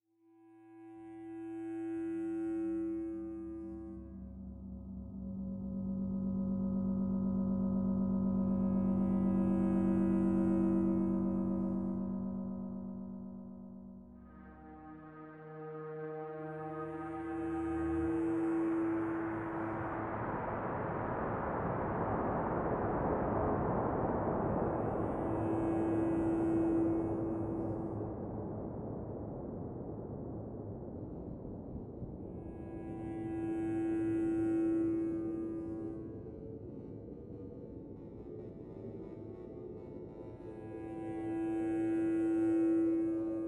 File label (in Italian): esercizio meditativo